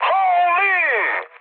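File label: Serbian trick mood high; speech; War Thunder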